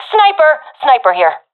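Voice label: sees sniper, professional female; vo; Counter Strike Model Pack; CS:GO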